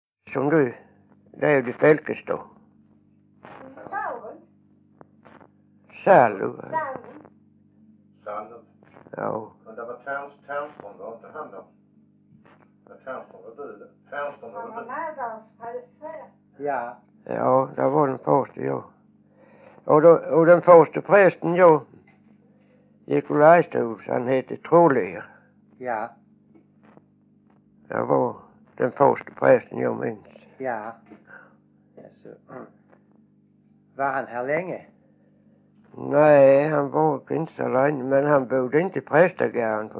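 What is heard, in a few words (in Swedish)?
Vånga Skåne, dialekter; Folkminnen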